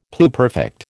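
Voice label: "pluperfect", American English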